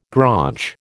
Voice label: "graunch", American

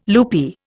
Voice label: "loopy", Indian

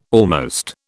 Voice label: "almost", British

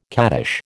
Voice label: "cattish", American

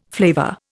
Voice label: "flavour", Indian

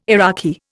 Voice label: "Iraqi", Indian

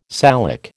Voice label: "Salic", American

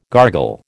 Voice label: "gargle", American

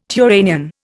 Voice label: "Turanian", Indian

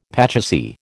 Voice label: "pachisi", American

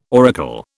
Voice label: "auricle", British

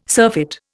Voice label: "surfeit", Indian

